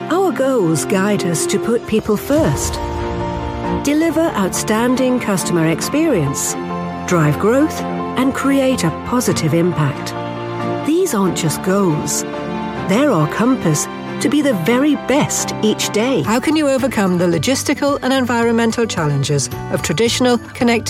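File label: corporate, Neutral And Geordie Voiceover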